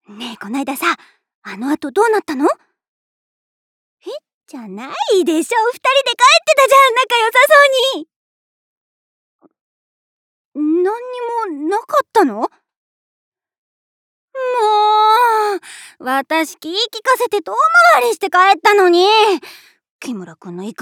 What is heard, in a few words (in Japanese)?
女子; 女性